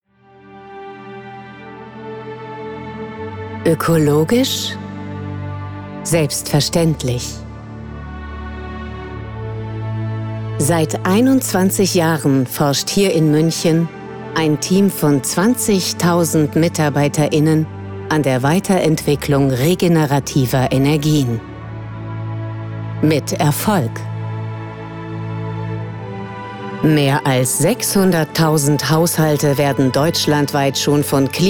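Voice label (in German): Imagefilm, Sprecherin; episch & souverän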